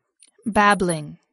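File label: "babbling", English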